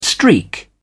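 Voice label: "streak", English, British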